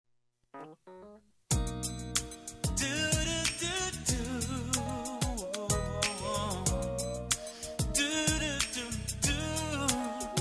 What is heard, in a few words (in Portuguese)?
dia doa migo masc amiga, voz masculina